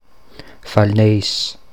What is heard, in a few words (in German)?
Villnöss Mundart